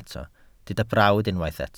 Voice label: "brawd", Welsh

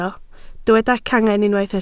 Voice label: "cangen", Welsh

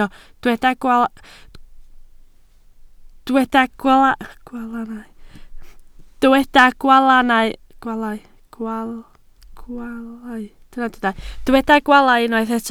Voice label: "gwaalau", Welsh

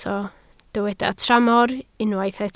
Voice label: "tramor", Welsh

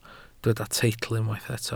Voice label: "teitl", Welsh

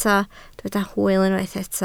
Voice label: "hwyl", Welsh

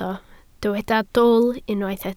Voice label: "dwl", Welsh

